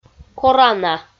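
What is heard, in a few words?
"корана", Komi, female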